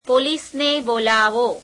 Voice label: gujarati Call the police

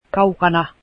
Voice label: far from, Finnish

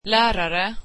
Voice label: teacher, swedish

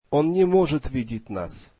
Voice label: russian he cannot see us

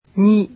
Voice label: "ni", japanese; alphabet

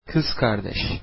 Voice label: sister, turkish

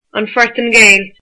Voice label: Portugal, irish

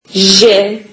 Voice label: turkish alphabet j

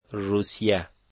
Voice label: Russia, dari

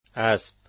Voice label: "horse", dari

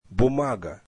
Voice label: russian paper

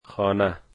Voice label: "house", Dari

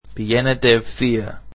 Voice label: greek Go straight

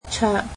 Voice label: "table", Cantonese

